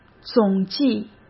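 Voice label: "总计", Mandarin Chinese; chinese flashcards; hsk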